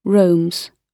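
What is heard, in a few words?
"roams", British English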